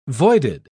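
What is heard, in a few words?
"voided", British English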